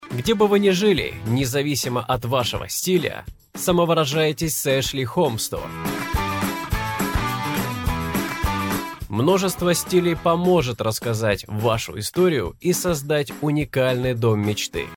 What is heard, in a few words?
Russian male